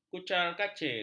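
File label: "kucar-kacir", Malay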